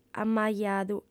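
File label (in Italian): "amajadu", sarda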